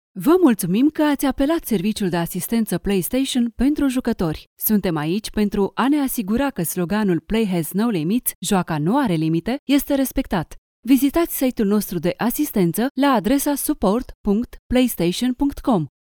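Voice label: Romanian, IVR; GoLocalise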